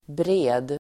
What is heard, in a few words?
"bred", Swedish